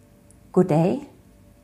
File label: danish hello